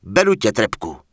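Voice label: Human Male Standard NPC Vendor, Czech; wowpreklad; czwow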